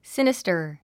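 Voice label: "sinister", English word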